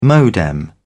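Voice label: "modem", British English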